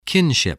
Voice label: "kinship", English